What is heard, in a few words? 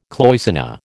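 "cloisonné", American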